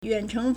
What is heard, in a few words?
"yuan3cheng2", Chinese